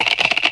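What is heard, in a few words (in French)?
"jouer", French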